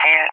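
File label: "kill", English, SPAIN; Messages; DCS; Allied Flight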